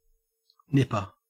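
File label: "nipper", Australian English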